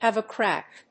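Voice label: "have a crack", English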